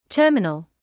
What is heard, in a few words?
"terminal", medical English